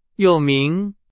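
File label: Chinese, vocabulary; hsk1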